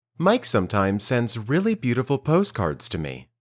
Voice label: Dictation